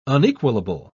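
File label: "unequalable", British English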